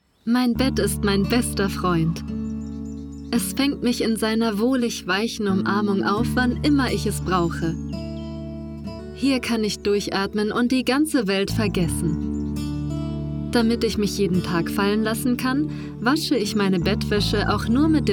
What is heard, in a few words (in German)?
Werbung